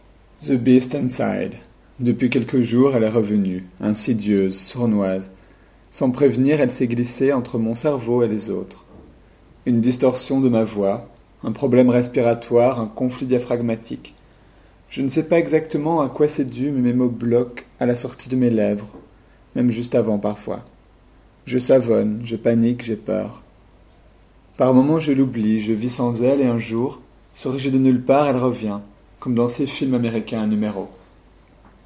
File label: stutter